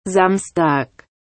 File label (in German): "Samstag", German